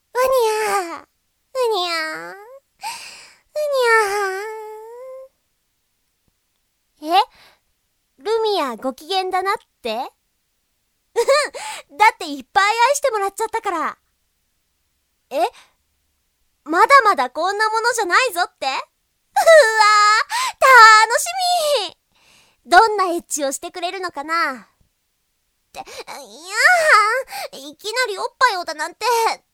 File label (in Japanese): ボイスのみ; 3章